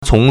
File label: "cōng", Chinese